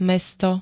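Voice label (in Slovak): "mesto", Slovak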